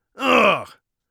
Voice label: irritated, Warrior Voice; Warrior Voice Pack

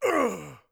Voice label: hit, orc voice; Orc Voice Pack

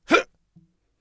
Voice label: defend, Mage Voice; Mage Voice Pack

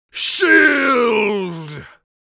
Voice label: shield, mage voice; Mage Voice Pack

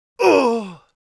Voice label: crit, Mage Voice; Mage Voice Pack

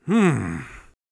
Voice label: sceptical, Mage Voice; Mage Voice Pack

